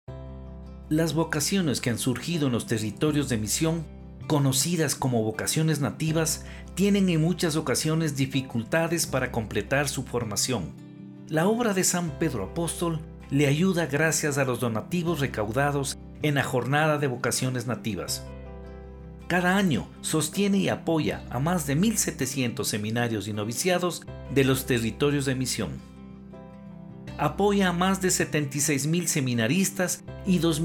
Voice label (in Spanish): spot M; Vocaciones Nativas